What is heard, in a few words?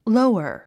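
"lower", English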